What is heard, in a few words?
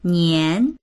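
"nian2", Mandarin Chinese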